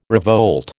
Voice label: "revolt", American

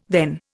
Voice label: "then", Indian

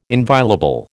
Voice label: "inviolable", American

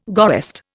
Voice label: "goest", Indian

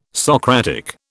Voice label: "Socratic", British

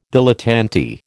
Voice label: "dilettante", American

